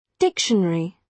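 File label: "dictionary", British English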